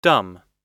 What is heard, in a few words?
"dumb", US English